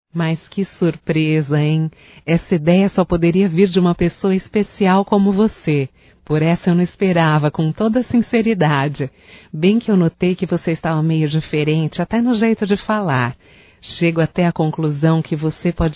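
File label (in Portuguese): Agradecimento pela festa de aniversário female, Só Voz; Telemensagens; AGRADECIMENTOS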